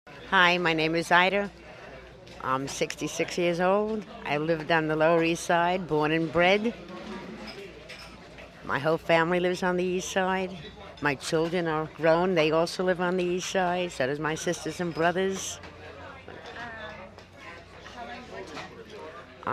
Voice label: Lower East Side White Female 66; New York

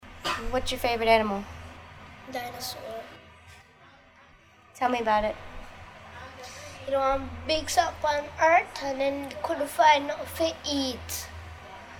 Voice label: Jamaica boy monologue; Caribbean

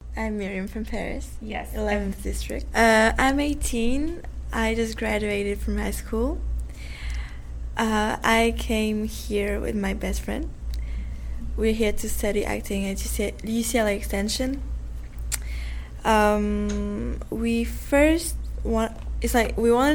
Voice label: French Parisian Born Algerian Female Age 18; France

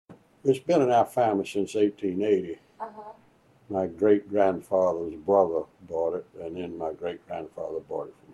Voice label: USA North Carolina Costal Southern Male 80s

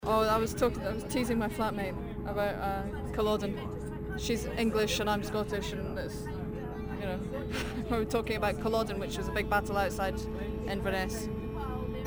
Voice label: Scotland Glasgow Female Conductor monologue

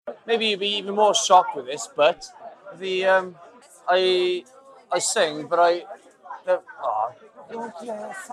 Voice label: welsh 20s man; Europe

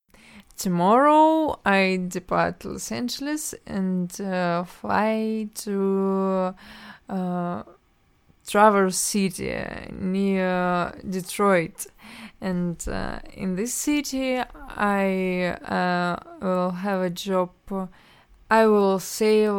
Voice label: Russian White Female Siberia Age 20

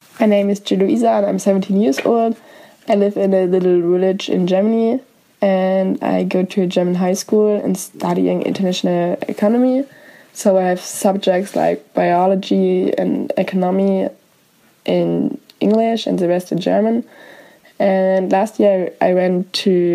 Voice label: German Age 17; Germany

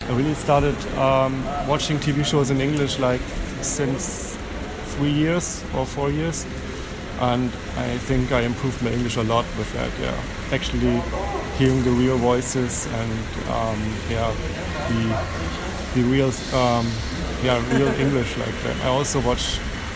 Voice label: German Northern Hamburg White Male Age 30

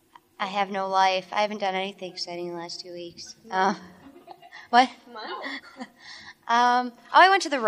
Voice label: Wisconsin white female 20s; United States Midwest Dialects

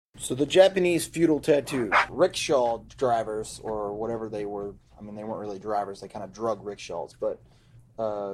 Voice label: USA South Carolina Coast Southern Male

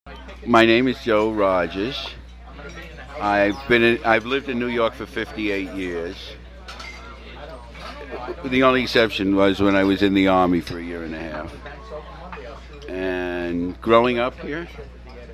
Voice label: Jewish New York White Male Late 50s; US Northern East Coast Dialects

